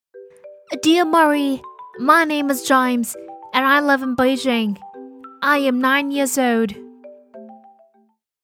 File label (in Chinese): 英正太